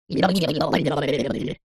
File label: cartoon voice character head shake dazed high pitched